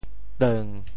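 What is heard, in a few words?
"teung7", Teochew; 潮州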